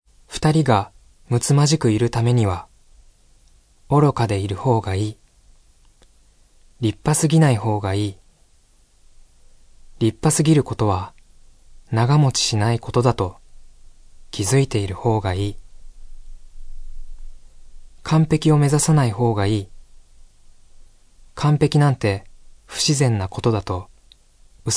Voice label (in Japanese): ナレーション